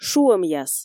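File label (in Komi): "шуӧмъяс", Komi, female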